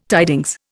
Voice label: "tidings", Indian